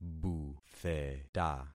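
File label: "butheta", concatenated syllables; Affixing experiment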